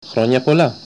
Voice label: happy birthday greek